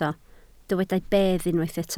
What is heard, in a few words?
"bedd", Welsh